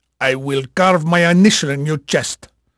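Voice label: Spanish male, voice; Pirates Of The Caribbean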